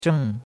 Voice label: "zheng4", chinois; Cours-de-Chinois

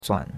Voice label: "zuan3", chinois; Cours-de-Chinois

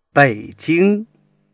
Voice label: "bei3 jing1", Chinese

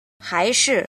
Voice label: "jin", Chinese

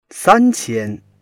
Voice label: "san1 qian1", Chinese

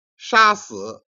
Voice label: "jian", Chinese

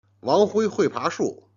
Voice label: "jian", Chinese

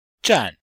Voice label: "zhan4", Chinese; Chinese-Chine-Culture

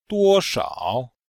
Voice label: "duo1 shao3", chinois; Cours-de-Chinois

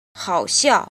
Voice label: "jin", Chinese

